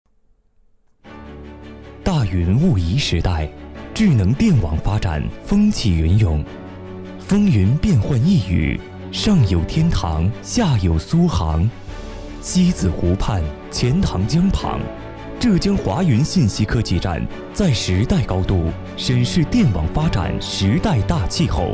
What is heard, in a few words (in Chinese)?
男 浙江华云信息科技站（年轻）